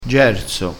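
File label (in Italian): "gerso", vocabolario dialettale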